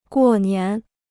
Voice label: "过年", Chinese